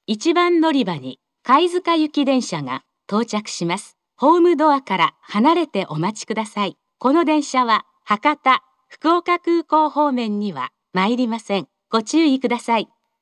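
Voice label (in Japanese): 接近-貝塚行（当駅始発）, 1番のりば放送; 福岡市地下鉄 空港線・箱崎線 中洲川端駅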